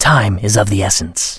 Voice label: NPC Blood Elf Male Standard Farewell